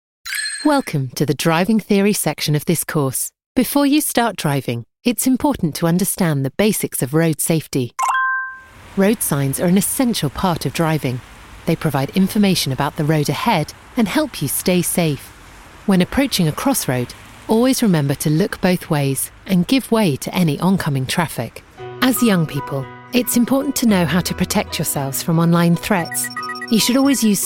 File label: english british e-learning, british voice actors